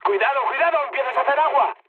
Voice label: damaged water, mood high, speech; War Thunder